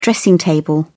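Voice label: "dressing table", British English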